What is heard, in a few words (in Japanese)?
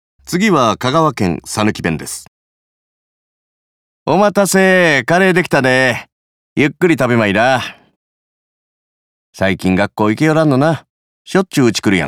讃岐弁; 方言